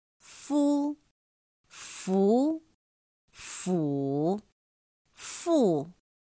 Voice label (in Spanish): "fū" "fú" "fǔ" "fù", Chino